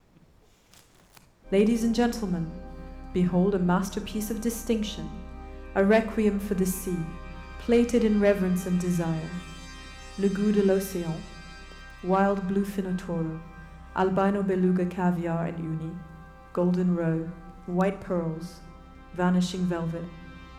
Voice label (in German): ai stimme; saison 2026